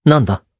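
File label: Vox, voices, Japanese; Esker; kingsraid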